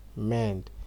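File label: "mend", Nigerian Pidgin, male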